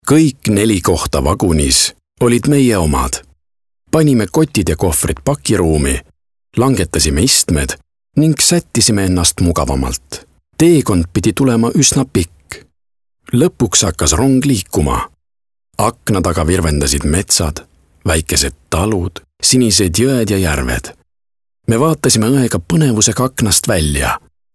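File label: Estonian male example Casual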